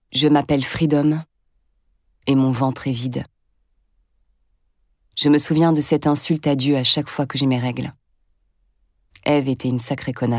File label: narration; Les Infames